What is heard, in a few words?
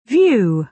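"view", British English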